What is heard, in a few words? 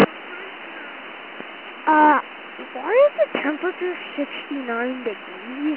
Coast Guard Radio Call; Coast Guard Ogunquit